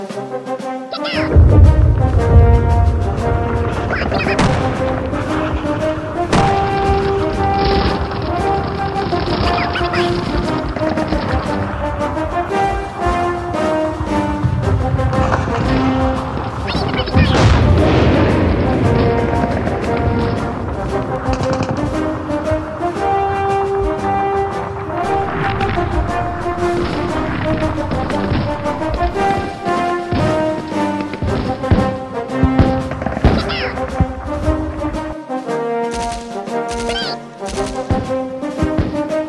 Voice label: radio start; svencoop; sc toysoldiers